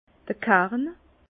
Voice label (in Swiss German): "karn", Bas Rhin; vin; pépin